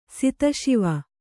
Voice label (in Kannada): "ಸಿತಶಿವ", Kannada